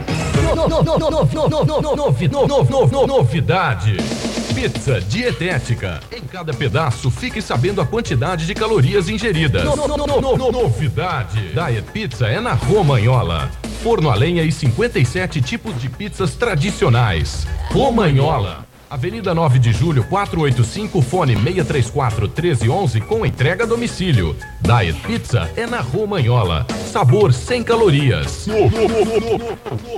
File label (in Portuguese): comercial; Difusora FM Ribeirao Preto SP